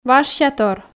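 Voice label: "важсятор", Komi, female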